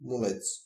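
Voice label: xanthia, Bulgarian; animals; insects; tuxpaint-stamps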